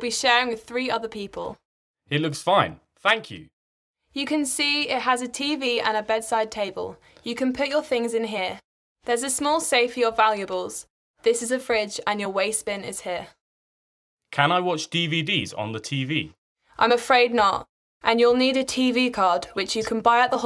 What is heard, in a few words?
Conversation; Bedside Manner Beginner